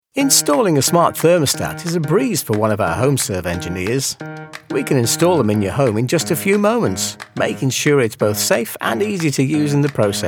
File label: Corporate Explainer